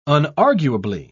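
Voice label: "unarguably", British English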